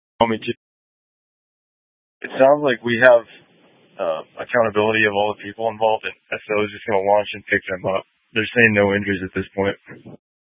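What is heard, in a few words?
Incident Tactical 1, Scanner audio recording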